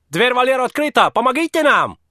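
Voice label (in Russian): medbrat, male nurse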